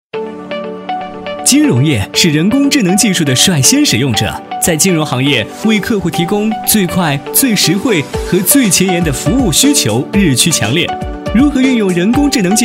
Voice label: 男 飞碟说; 太平洋保险

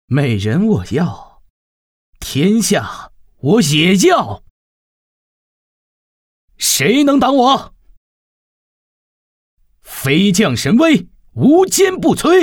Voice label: 男 青年 邪魅

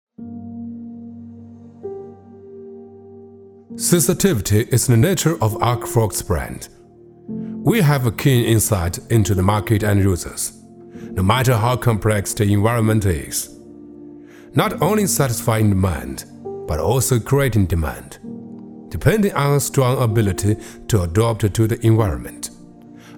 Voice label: 男 英文 素人自然

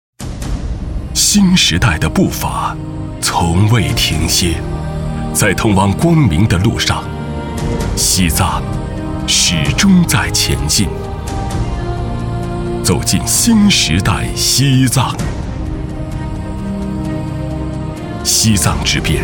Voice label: 男 大气记录 影片解说词; 走进新时代西藏

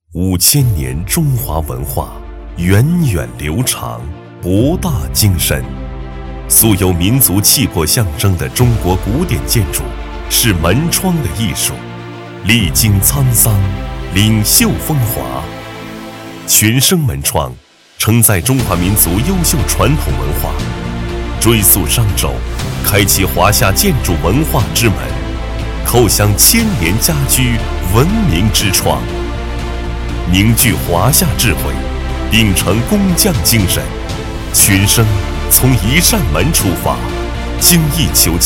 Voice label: 男 大气广告; 群升门窗

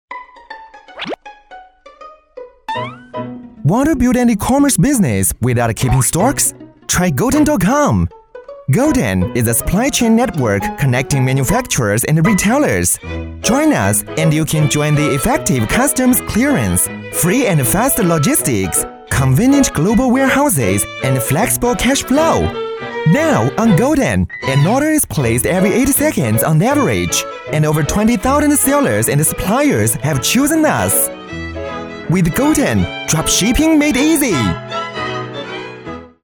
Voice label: 英男 英文飞碟说 活泼幽默

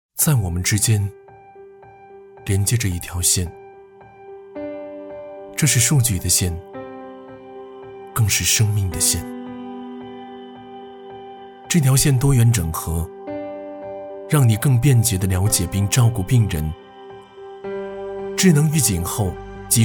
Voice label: 男 独白; 飞利浦

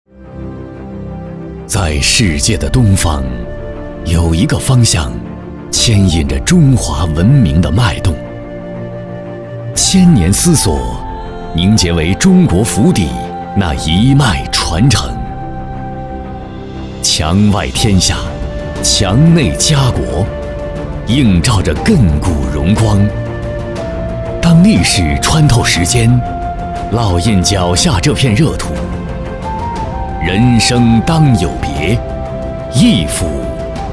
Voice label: 男 广告 大气 浑厚 地产类; 铂丽-紫郡府

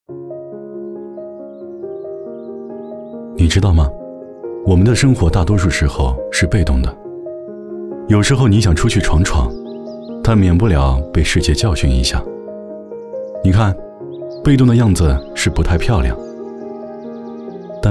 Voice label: 男 走心 剧情类; 苏泊尔

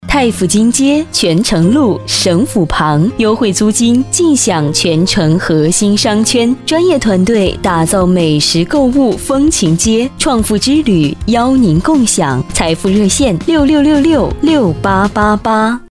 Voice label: 女 广告 房产 高雅大气

